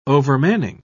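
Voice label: "overmanning", British English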